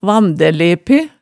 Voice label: "vandælepe", hemsedalsmaalet tale

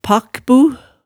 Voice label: "pakkbu", Hemsedal

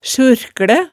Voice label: "surklæ", hemsedalsmaalet

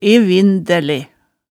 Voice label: "evindæle", hemsedalsmaalet

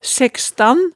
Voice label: "sækstan", hemsedalsmaalet

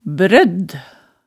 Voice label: "brødd", hemsedalsmaalet tale

